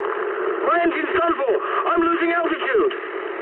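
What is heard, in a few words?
English damaged engine mood high; speech; War Thunder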